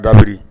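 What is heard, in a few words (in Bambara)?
"dabiri", Bambara word; actions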